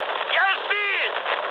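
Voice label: Russian aircraft destroyed mood high, speech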